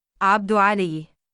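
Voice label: "3abd-3alii" male, Arabic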